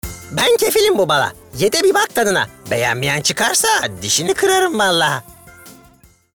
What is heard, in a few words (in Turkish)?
ANIMASYON